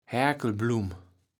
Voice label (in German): "Härkelblumm", Platt